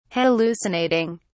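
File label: "hallucinating", British English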